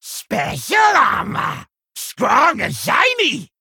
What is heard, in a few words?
Male Kobold Play